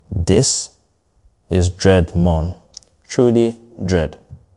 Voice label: upset; fertig classic games